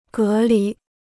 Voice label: "隔离", Chinese

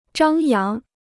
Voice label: "张扬", Chinese

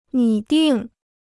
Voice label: "拟定", Chinese

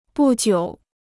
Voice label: "不久", Chinese

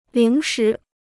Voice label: "零食", Chinese

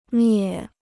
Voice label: "灭", Chinese

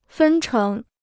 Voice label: "分成", Chinese

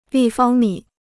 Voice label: "立方米", Chinese

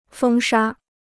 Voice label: "风沙", Chinese

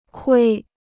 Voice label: "亏", Chinese

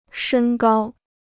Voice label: "身高", Chinese

